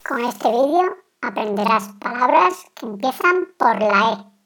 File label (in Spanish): PALABRAS E, vocales